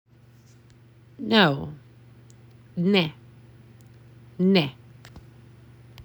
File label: "No", Macedonian; the talking macedonian phrasebook